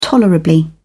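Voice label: "tolerably", British English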